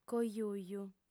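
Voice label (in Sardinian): "cojuju", female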